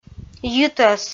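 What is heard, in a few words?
"йитӧс", Komi, female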